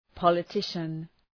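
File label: "politician", English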